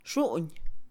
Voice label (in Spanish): aguja, Mixe, Mujer; Ropa; INALI